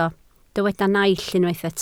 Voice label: "naill", Welsh